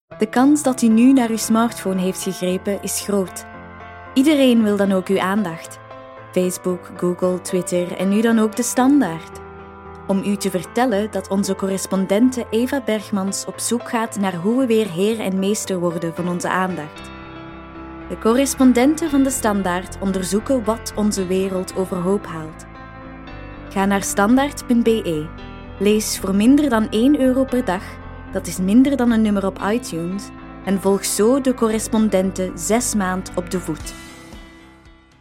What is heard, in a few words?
Flemish